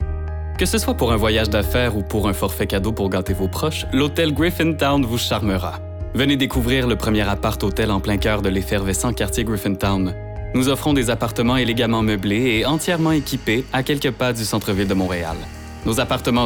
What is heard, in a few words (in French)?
Publicité; Hôtel Griffintown